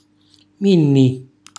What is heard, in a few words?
"minni", Calabrese; le due mammelle; cucina calabrese; Glossario